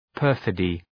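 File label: "perfidy", English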